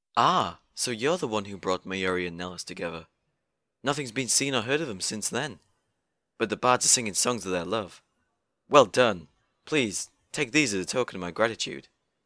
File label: breton, voice auditions